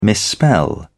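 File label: "misspell", British English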